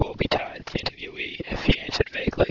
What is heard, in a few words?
whisp